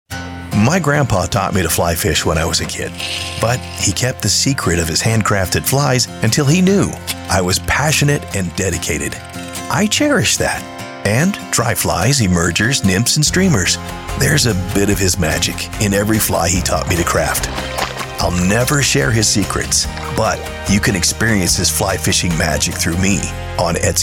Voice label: Commercial